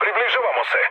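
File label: Serbian near waypoint mood low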